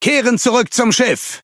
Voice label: Speech Pilots Fighter Land, DE; World Of Warships